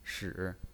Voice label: "shi3", pinyin